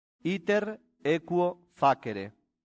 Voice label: "iter equo facere", latin; santillana